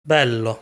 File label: "Bello", Italian